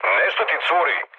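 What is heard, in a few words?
Serbian tank damaged mood med; speech; War Thunder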